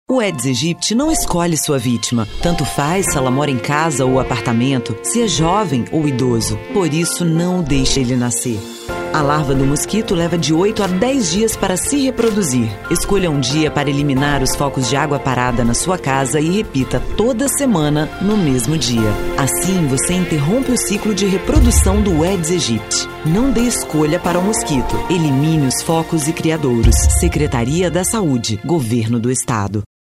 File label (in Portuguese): PADRÃO INSTITUCIONAL